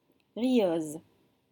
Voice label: "rieuse", French